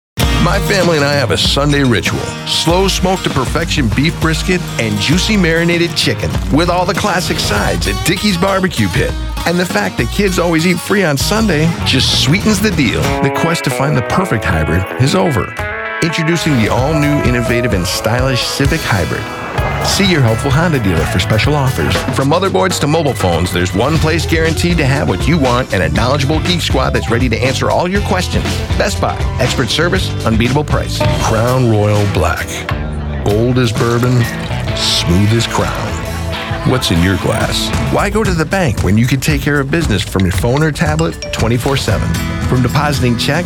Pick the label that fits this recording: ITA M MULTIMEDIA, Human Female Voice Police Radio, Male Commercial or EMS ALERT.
Male Commercial